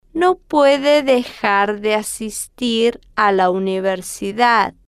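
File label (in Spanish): no Puede Dejar De Asistir A La Universidad, Spanish, female, slow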